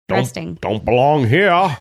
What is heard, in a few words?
saying dont belong here maine like